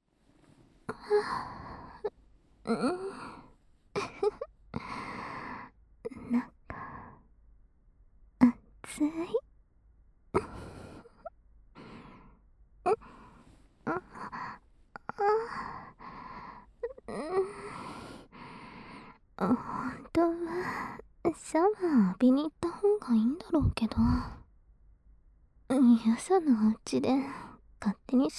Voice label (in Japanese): ピロートーク, ASMR; 悪戯好きのお姉さんの耳元囁き誘惑エッチ; ねっとり吐息多め